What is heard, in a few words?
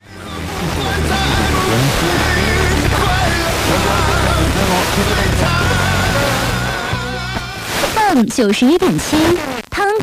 91.7MHz Traffic Information Radio; Taian RGD